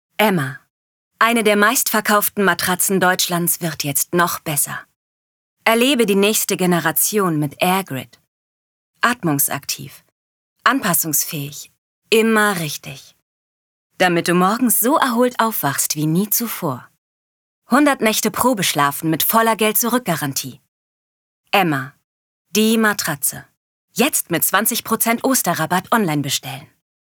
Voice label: Werbung

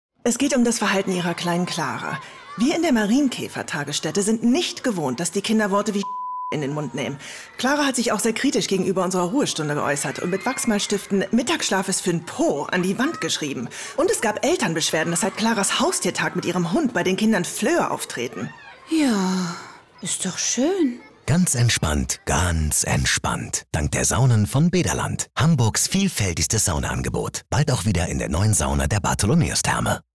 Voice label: Funkspot Deutsch; Baederland

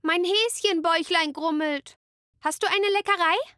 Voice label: kind

